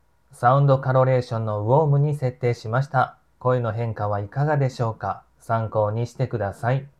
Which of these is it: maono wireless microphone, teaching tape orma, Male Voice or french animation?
maono wireless microphone